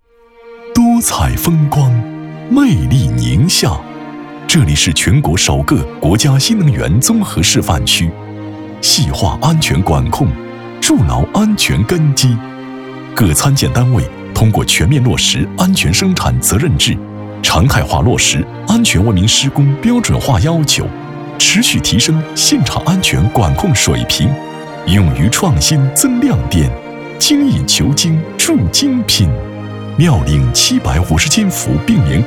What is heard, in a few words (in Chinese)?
大气 专题 讲述 大气厚重; 国家电网宁夏